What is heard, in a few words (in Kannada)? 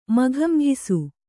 "ಮಕಮಕಿಸು", Kannada